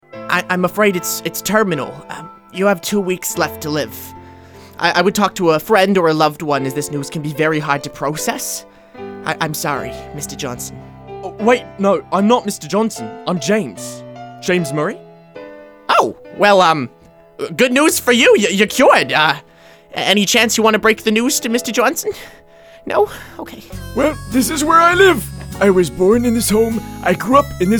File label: Animation VO